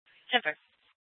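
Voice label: police dispatch, Scottsdale PD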